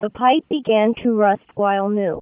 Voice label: Speech 1 IMBE (8 kHz sampling rate)